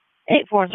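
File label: EMS Dispatch; wake; p25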